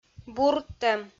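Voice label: "бурдтӧм", Komi-Zyrian, female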